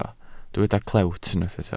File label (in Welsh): "clewt" Welsh